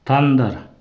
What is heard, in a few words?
"tandar", Pashto